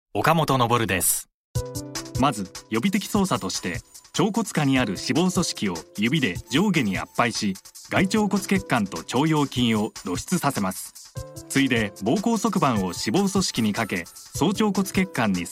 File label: male medical-instruction